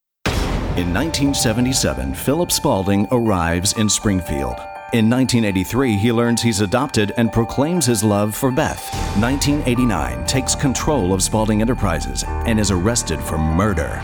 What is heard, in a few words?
Radio Spot; Guiding Light